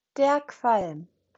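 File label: "Qualm", German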